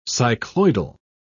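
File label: "cycloidal", British English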